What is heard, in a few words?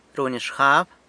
"runy xgab", San Lucas Quiaviní; thinks